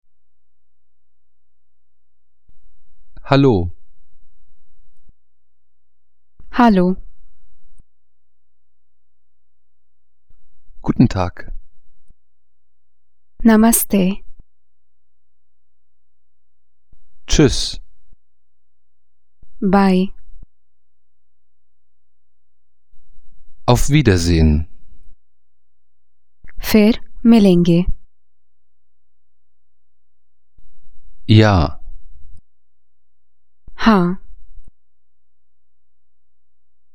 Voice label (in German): hindi